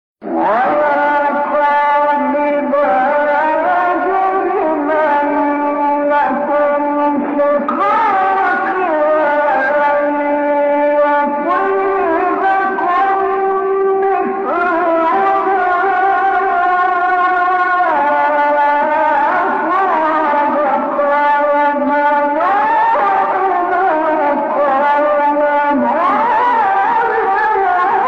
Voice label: تلاوت سوره هود; آیه 89; استاد محمد رفعت

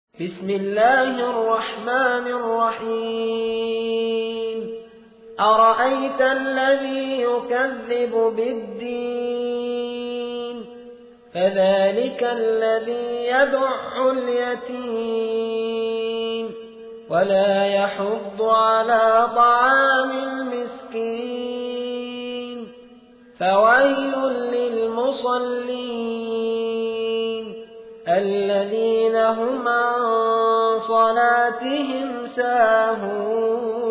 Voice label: ترتیل; سوره ماعون

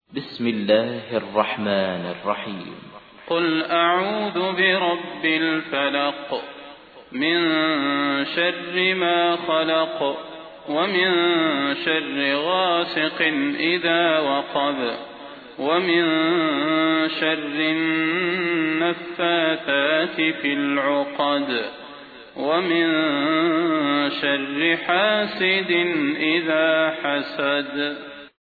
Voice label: ترتیل سوره فلق; صلاح البدیر

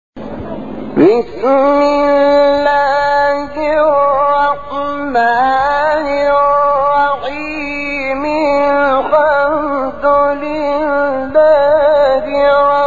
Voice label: تلاوت زیبای سوره حمد; شحات انور

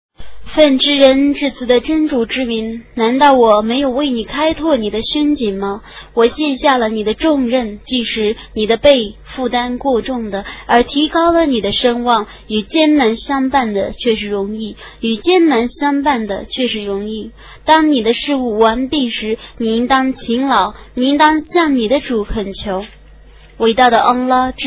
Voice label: ترجمه گویای قرآن کریم به زبان چینی; سوره انشراح

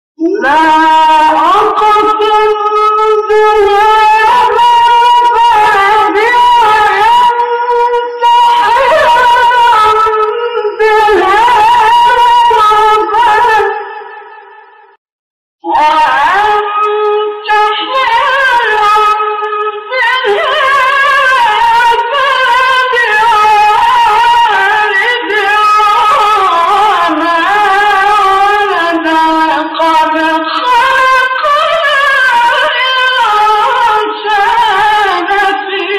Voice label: تلاوت فرازی از سوره بلد; استاد محمد رفعت

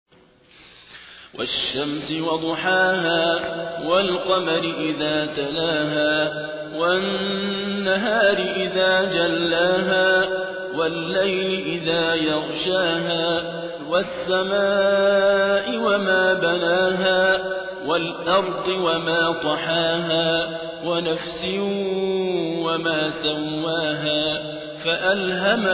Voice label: ترتیل; صابر عبدالحکم; سوره شمس

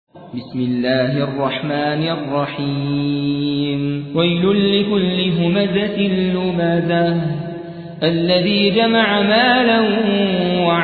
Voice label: ترتیل سوره همزه

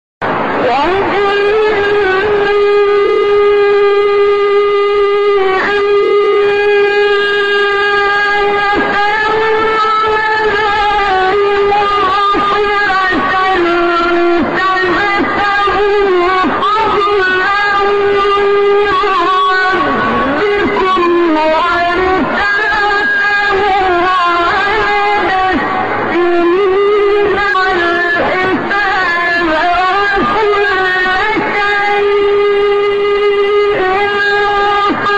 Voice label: تلاوت آیه 12 13 سوره مبارکه اسرا; با صدای استاد عبدالباسط

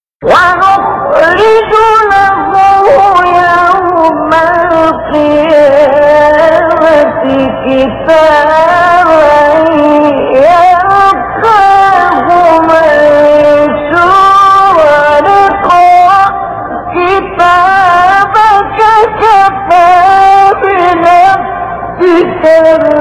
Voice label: تلاوت جذاب سوره اسرا; آیه 13 14; استاد مصطفی اسماعیل

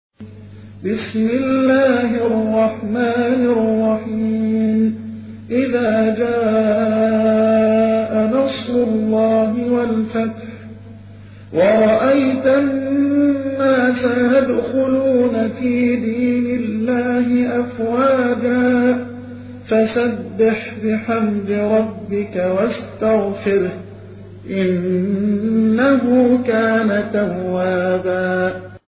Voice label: ترتیل سوره مبارکه نصر; محمد عبدالعزیز حصان

